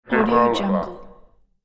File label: game over robot voice